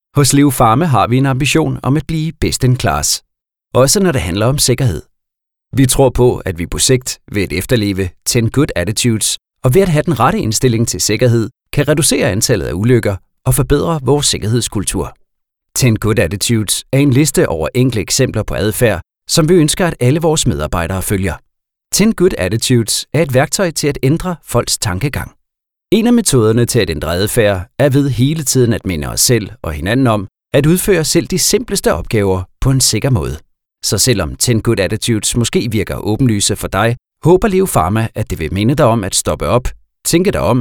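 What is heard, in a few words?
Danish e-learning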